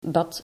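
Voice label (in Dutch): "bad", Dutch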